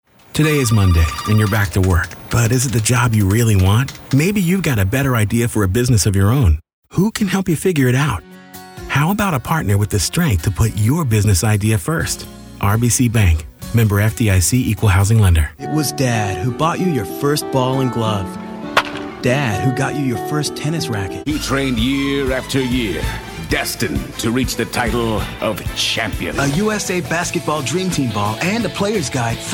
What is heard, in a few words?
Commercial, Male voices